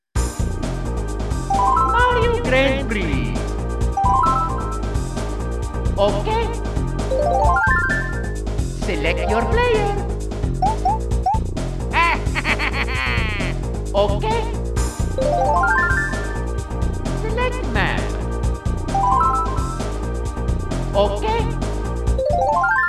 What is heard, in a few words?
announcer example us